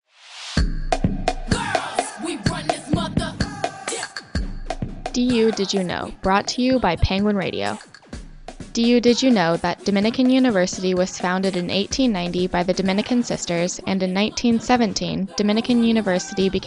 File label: female